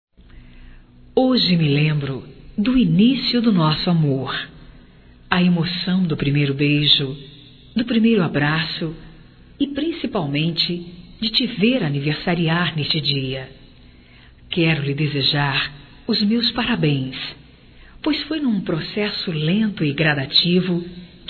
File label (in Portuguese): Aniversário romântico, Telemensagens; Aniversarios; Telemensagens 2017